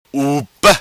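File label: "Upa", patua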